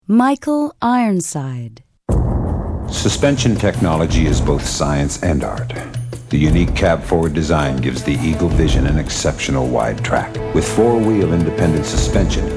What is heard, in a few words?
commercial male